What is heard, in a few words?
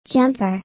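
"jumper", English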